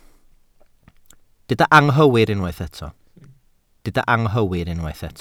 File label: "anghywir", Welsh